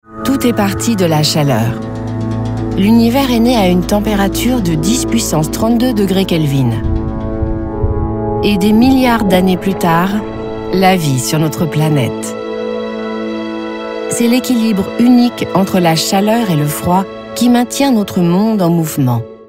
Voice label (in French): imagefilm; fre